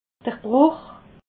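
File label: "bruch", Haut Rhin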